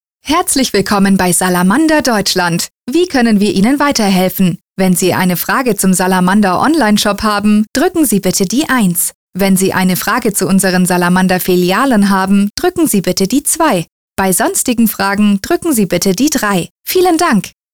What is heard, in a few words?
IVR